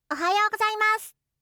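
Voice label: "ohayou gozaimasu", Japanese; aisatsu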